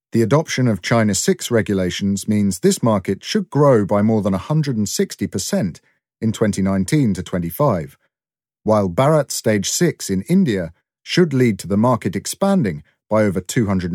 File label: English Corporate